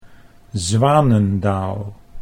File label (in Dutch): "Zwanendaal", Dutch